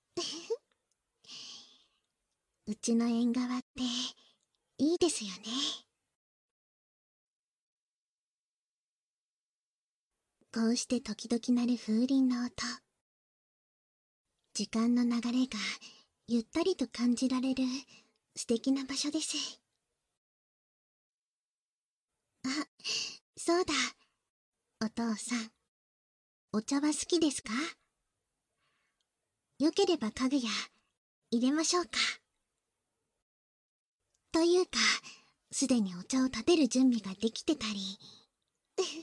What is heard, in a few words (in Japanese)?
縁側でまったり風鈴と共に黄昏れ（囁き重視編集 効果音なし）